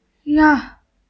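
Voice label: "rang4" Chinese, female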